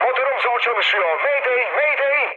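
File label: Turkish damaged engine, mood med, speech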